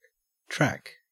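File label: "track", Australian English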